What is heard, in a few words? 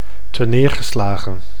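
"teneergeslagen", Dutch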